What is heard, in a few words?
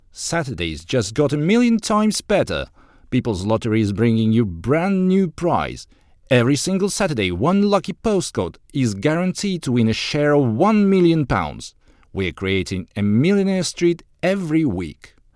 ENG